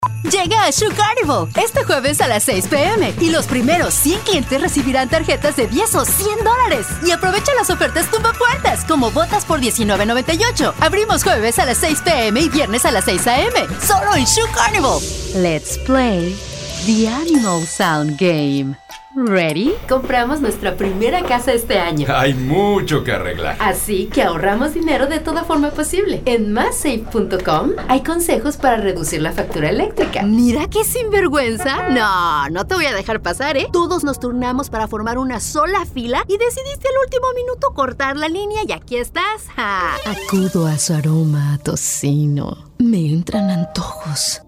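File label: Spanish Commercial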